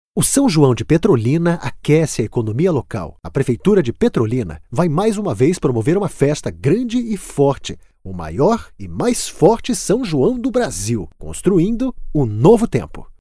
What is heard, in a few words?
portuguese brazilian podcasts; brazilian portuguese voice actors